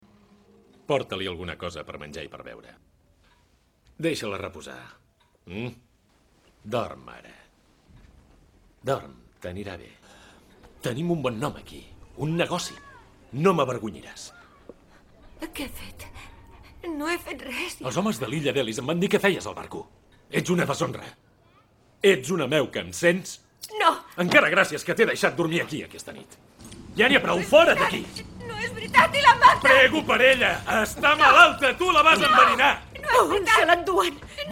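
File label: doblaje, Catalan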